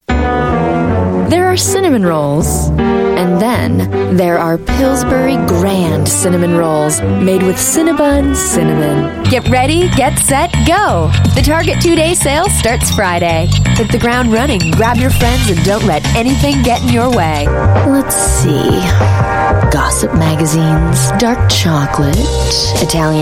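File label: commercial US English female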